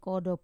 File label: "kodo", frog, Wamesa